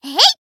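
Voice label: Voice attack, Japanese; thillelille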